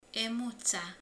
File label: "macska", romani